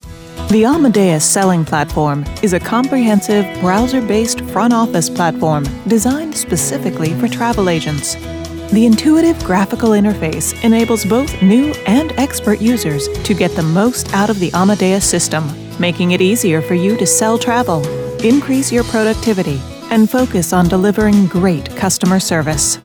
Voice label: eLearning Training

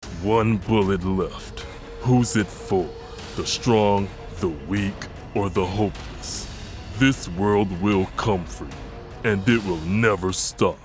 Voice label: Promo

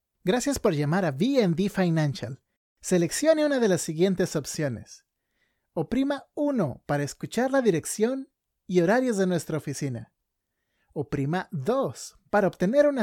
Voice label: IVR Spanish

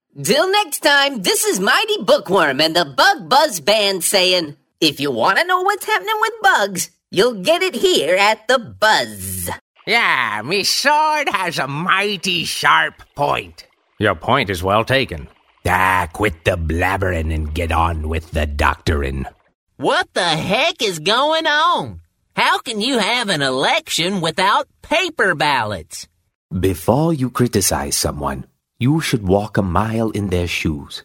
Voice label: animation multiple

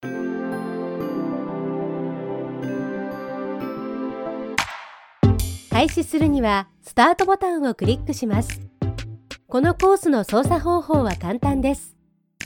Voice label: elearning

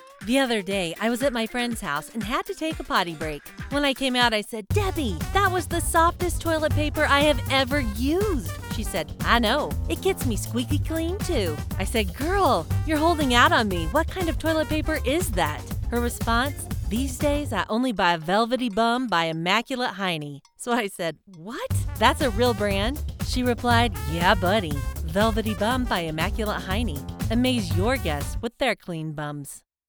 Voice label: Commercial Velvety With Music

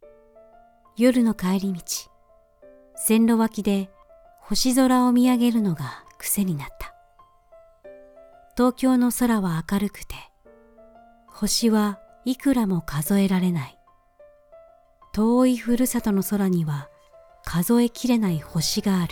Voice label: Audiobook

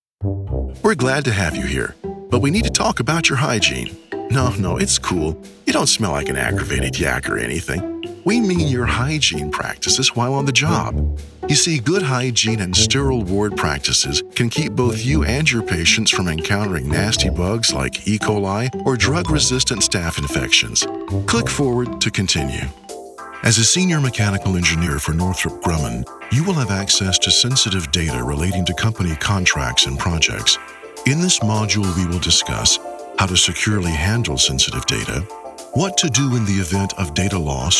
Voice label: E-Learning

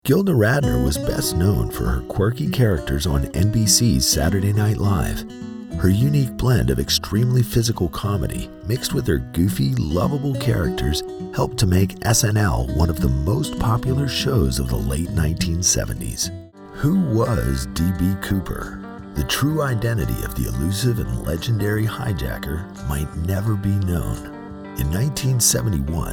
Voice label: narration

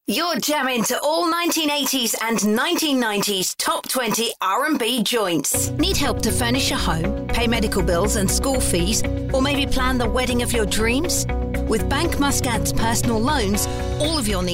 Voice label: Station Ident

